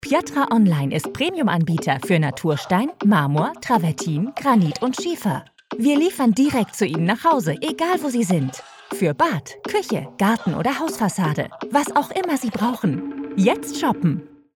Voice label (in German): Werbung; Piatra